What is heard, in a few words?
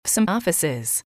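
"some offices", English, female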